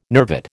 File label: "nervate", American